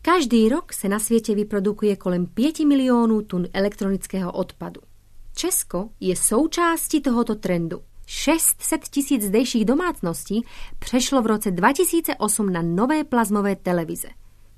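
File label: Czech; Czech, Eastern European, Male, Home Studio, 30s-40s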